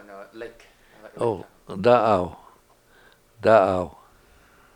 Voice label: "da7aw", Washo; Lake